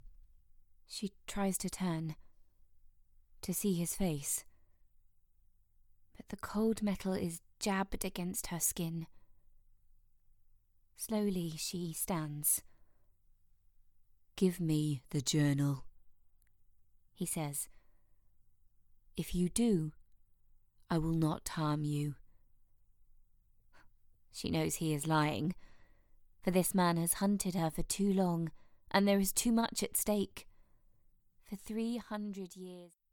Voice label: Audiobook Sample